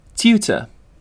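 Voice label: "tutor", British English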